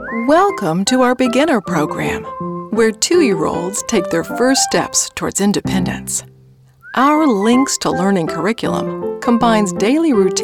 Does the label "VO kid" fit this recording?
No